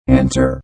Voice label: Robot Voice "Enter"; Robot Sound Effects; Lynne Music

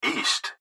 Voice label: Gps Voice, Male

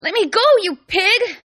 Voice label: Female Pig English, Hispanic Female; Attitude

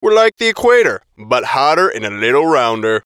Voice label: VOICE COMICAL; Radio Voice -WeRe Like The Equator But Hotter And Rounder; Funny Sound

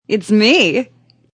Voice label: Woman Says Its Me, Woman talking; Voice; Talking Sound Effects

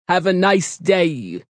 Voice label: Have A Nice Day, Male; Talking Sound Effects

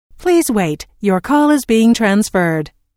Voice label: Telephone prompt; Pre-recorded telephone on-hold message

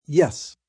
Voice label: "Yes", male; Response; VoiceBox

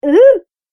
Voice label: Cartoon Game Voice "Uhhoohh"

